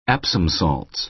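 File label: "epsom salts", American English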